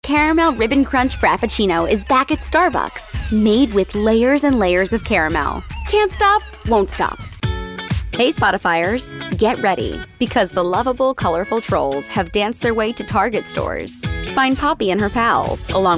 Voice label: Upbeat Perky